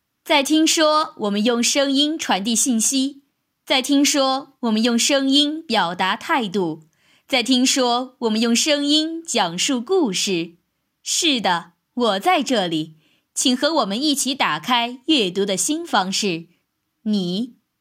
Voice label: 讲述类

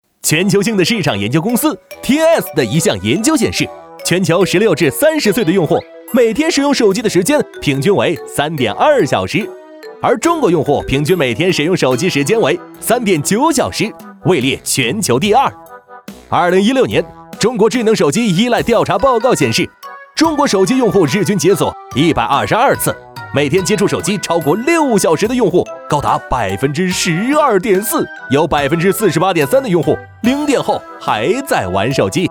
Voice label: MG动画解说

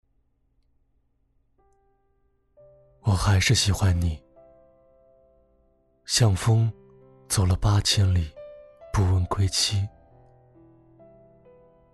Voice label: 情感

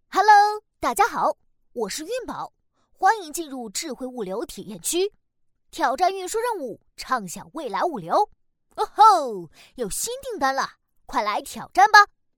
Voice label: 男童; 运输游戏设计说明

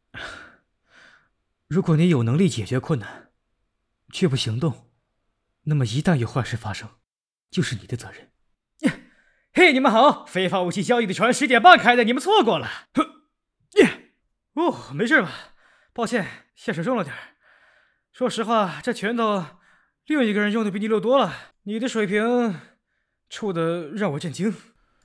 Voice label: 青年声线

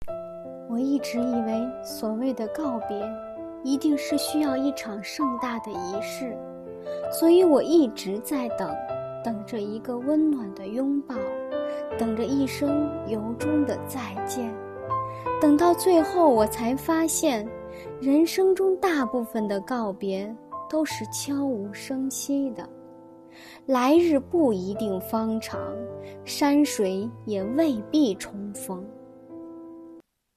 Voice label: 告别 诗词 朗诵

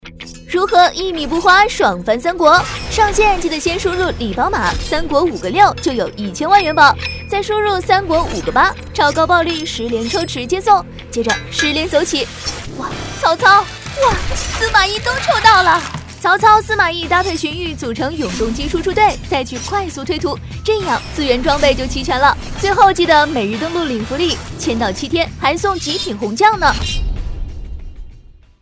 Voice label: 动画 动漫 激情 御女 女播

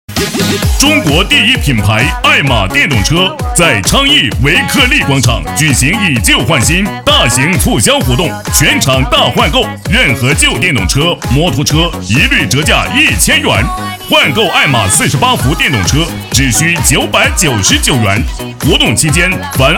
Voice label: 电动车 广告配音

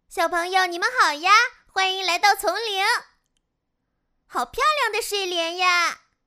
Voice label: 童女

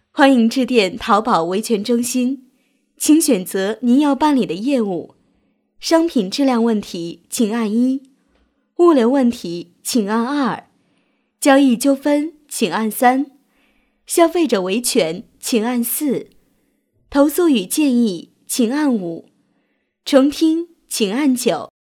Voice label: 彩铃 售后维权; 淘宝

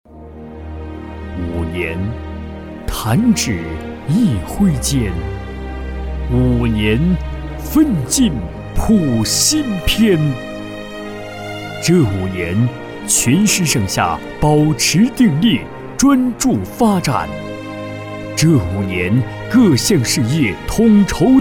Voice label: 时政专题政府汇报激情沉稳大气男声

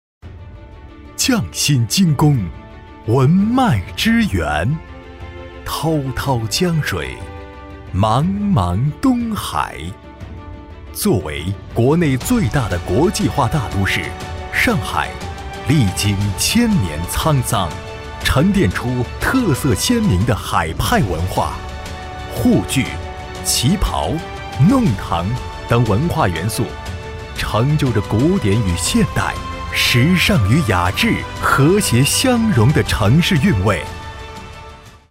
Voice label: 专题大气浑厚

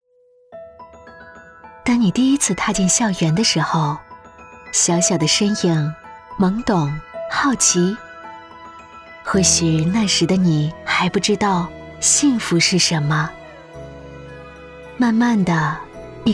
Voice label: 旁白 年轻 生动 幼儿园; 幸福在这里

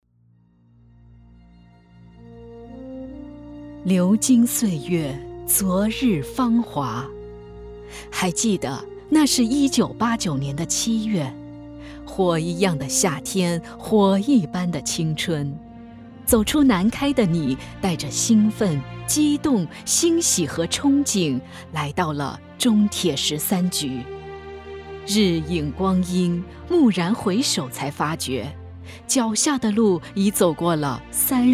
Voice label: 大气磅礴; 流金岁月

